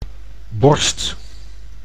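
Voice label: "borst", Dutch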